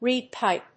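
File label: "reed pipe", English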